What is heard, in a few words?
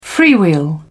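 "freewheel", British English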